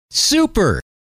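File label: "super", male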